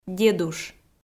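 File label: "дедуш", Komi, female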